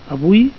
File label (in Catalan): "avui", Catalan